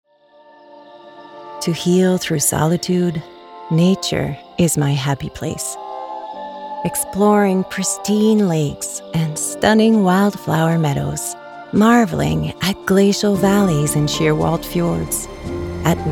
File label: Commercial; Parks Canada